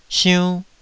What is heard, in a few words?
"siunn2", taiwanese Syllables